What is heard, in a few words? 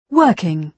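"working", British English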